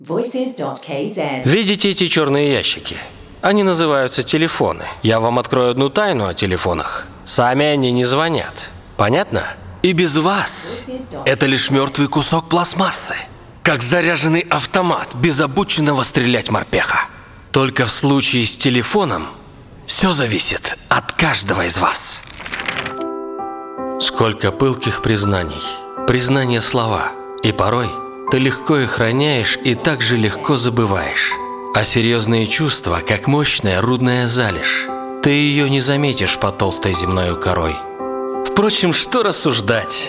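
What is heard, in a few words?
russian dub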